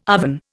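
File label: "oven", Indian English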